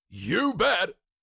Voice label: Cartoon lion voice "you bet"

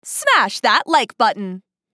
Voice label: Smash that like button social media female

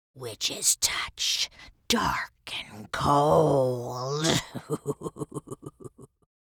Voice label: Witch fantasy sentence touch dark and cold

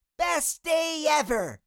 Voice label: Cartoon little child voice best day ever

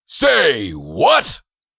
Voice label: Say what meme voice male

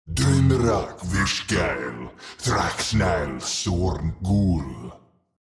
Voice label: Demon king lord spell casting

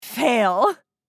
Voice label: Game over fail female voice; video game

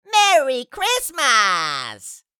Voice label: Jolly elf merry christmas

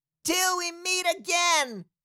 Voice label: Cartoon little child voice till we meet again

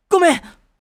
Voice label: Sorry anime boy character; cartoon

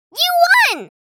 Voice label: You won kid girl vocalization

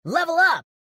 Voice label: Level up male voice

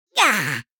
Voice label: Minion excitement voice; fantasy friends

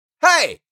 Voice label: Adult male shout in anger feeling annoyed "heyy"

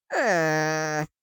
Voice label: Minion sadness voice

